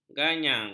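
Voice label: "ganyang", Malay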